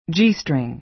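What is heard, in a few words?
"g-string", American English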